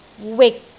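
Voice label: "wik6", Cantonese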